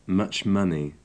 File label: "much money", English